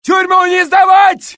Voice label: Russian; mohaa; dialogue